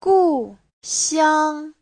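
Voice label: "gùxiāng", Mandarin Chinese; 故乡; cố hương, quê hương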